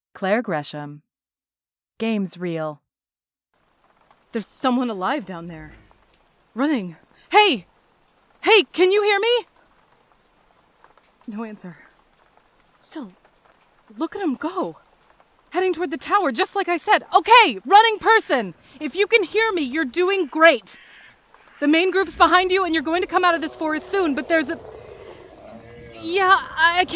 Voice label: Zombie Videogame Sample with sfx